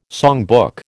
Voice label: "songbook", American

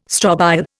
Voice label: "strobile", Indian

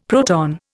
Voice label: "proton", Indian; Dictionary

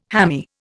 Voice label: "hammy", Indian

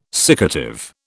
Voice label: "siccative", British

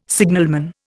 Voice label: "signalman", Indian

